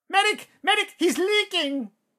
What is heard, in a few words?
battle chatter